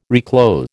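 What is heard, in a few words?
"reclothe", American English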